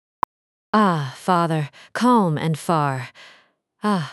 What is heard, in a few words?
vowel a female